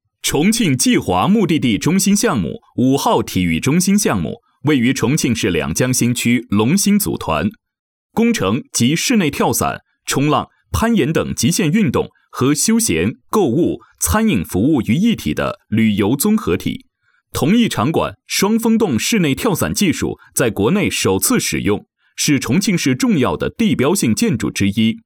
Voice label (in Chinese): 情感讲述自然磁性俺给爹娘拍相片